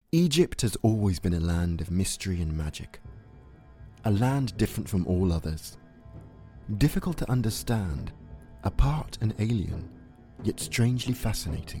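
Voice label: English UK Narrative; GoLocalise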